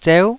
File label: "zeu9", taiwanese syllables